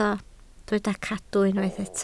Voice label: "cadw", Welsh